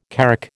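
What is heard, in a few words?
"carrack", American English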